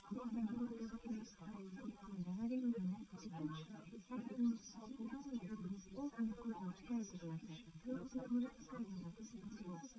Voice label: female liverec; SiSEC11; underdetermined